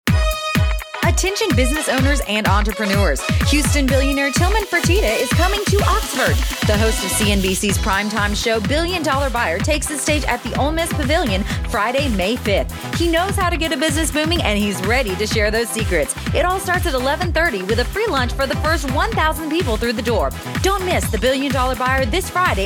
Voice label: Commercial